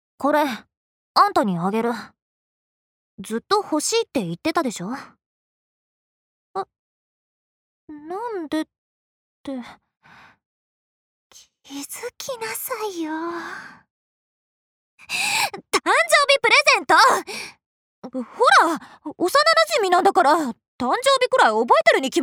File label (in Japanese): アニメ